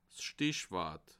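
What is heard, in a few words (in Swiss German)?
"stichwort", Bas-Rhin; livre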